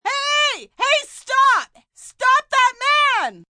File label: Hey Stop That Man, Calls, distress, help, female; Exclamation; VoiceBox